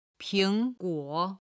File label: "ping2guo3", Chinese